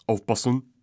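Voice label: "Aufpassen", German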